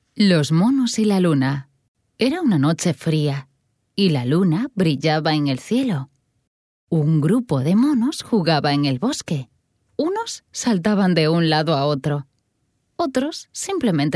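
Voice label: Spanish, children story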